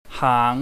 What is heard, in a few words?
"haang", chinese